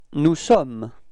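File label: "etre nous", French; frans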